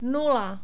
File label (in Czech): "nula", Czech; numbers